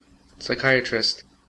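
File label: "psychiatrist", US English; pronunciations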